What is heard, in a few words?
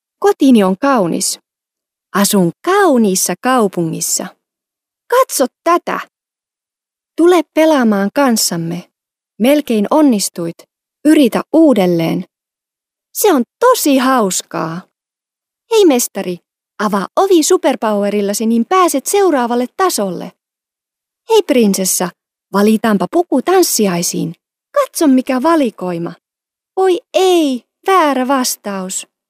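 Finnish female children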